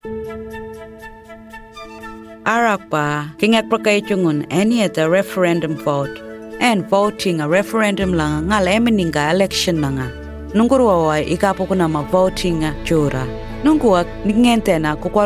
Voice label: Anindilyakwa, Completing the ballot paper